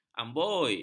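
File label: "amboi", Malay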